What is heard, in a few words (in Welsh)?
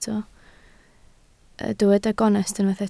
"gonest" Welsh